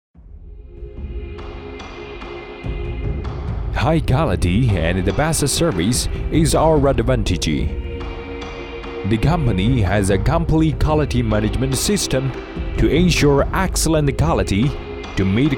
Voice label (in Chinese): 英文男